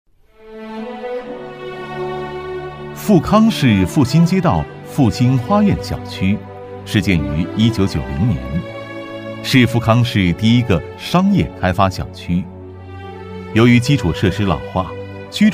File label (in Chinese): 男 人物宣传片; 党支部书记